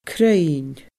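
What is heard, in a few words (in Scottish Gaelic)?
"cruinn", Scottish Gaelic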